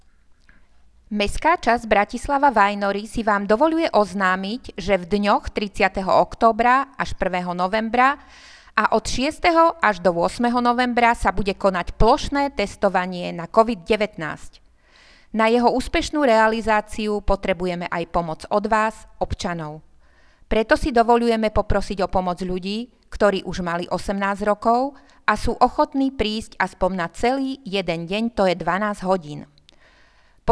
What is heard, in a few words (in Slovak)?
Hlásenie miestneho rozhlasu; Vajnory ťa potrebujú - Dobrovolníci COVID19